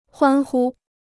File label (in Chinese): "欢呼", Chinese